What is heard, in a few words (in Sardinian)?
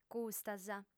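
"custas", female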